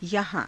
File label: "yahaa~", hindi